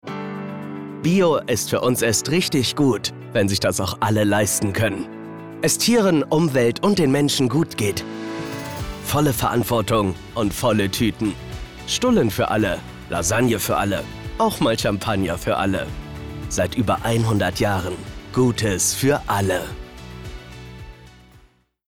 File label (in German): Werbung Supermarkt